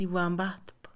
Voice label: perjurio, Mixe, Mujer; INALI

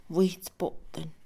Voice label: violación, Mixe, Mujer; INALI

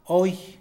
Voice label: "mejor", Mixe, hombre; Emociones; INALI

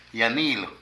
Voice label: Anillo, Zapoteco de Petapa; Ropa; Vocabulary

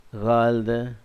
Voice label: "veinte", Zapoteco, Magdalena Ocotlan; Números; INALI; Haspelmath

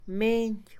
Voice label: moneda, Mixe, Mujer; Posesión; INALI

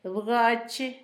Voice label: anaranjado, Zapoteco, Asunción Ocotlan; Adjetivos; INALI; Haspelmath

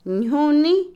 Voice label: comida, Otomí, mujer; INALI

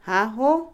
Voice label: zorro, Otomí, mujer; Animales; INALI

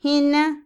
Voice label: o, Otomí, mujer; INALI

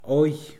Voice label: claro, Mixe, hombre; Cognición; INALI

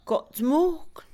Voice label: rastrillo, Mixe, Mujer; INALI

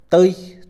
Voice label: "derecha", Mixe, hombre; Espaciales

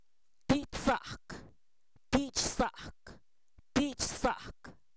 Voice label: Zapoteco, san miguel albarradas; palabra buena; sustantivos

